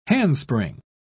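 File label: "handspring", British English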